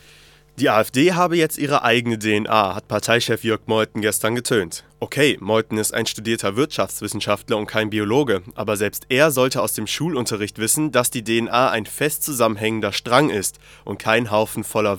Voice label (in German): Kommentar